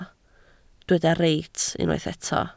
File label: "reit", Welsh